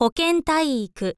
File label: info lady hokentaiiku